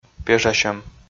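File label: "пежасьӧм", Komi, male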